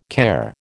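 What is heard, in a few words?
"care", American English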